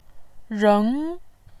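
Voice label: "reng", Mandarin Chinese, second tone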